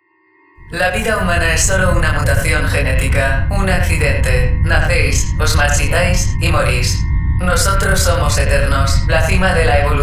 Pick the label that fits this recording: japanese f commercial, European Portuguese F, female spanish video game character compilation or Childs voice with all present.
female spanish video game character compilation